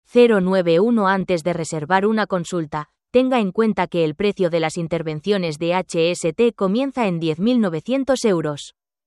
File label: Vocal spanish